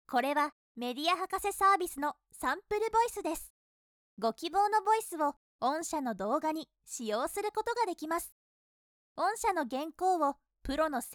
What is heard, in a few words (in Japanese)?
kawaii, narration sample voice women